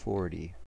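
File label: "forty" US English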